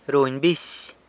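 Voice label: "runy bisy", San Lucas Quiaviní Zapotec; lets (someone) know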